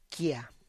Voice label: "kia", into